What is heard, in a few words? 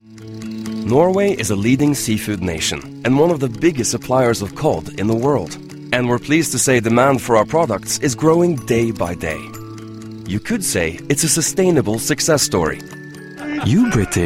english with norwegian accent